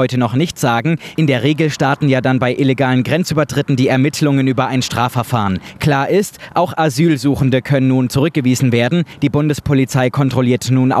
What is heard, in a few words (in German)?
AUFSAGER LANG Zurueckweisungen an Grenzuebergang Weil am Rhein Autobahn